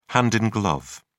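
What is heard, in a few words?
"hand in glove", British English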